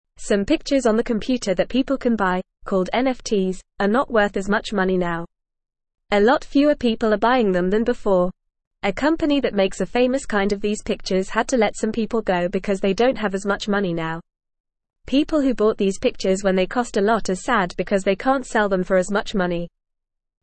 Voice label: English Newsroom Beginner FAST Reading; Computer Pictures Called NFTs Not Worth Much